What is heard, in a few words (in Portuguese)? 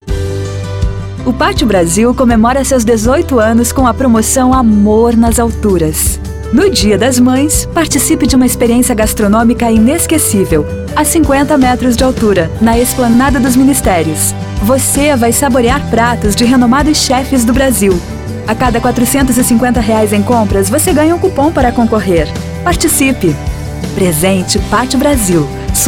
Institucionais